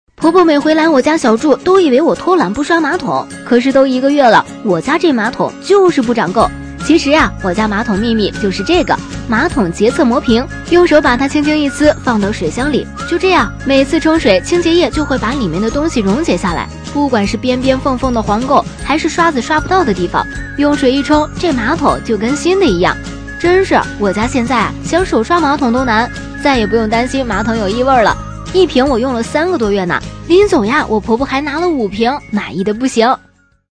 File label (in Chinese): 女 抖音视频配音; 马桶洁厕魔瓶